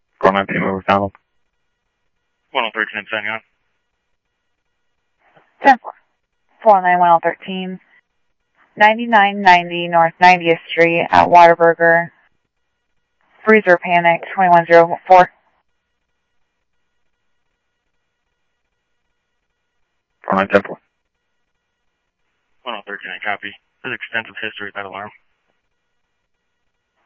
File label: Police, scanner audio